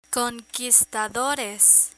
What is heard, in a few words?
"conquistadores", Spanish; listening comprehension; learn spanish